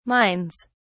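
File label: "mines", British English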